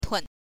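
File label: "tun" Mandarin Chinese, neutral tone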